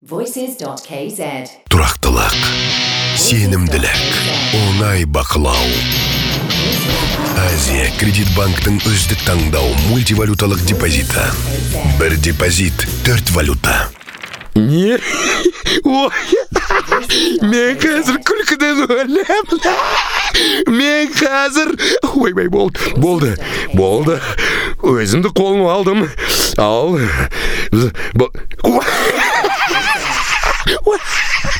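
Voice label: Kazakh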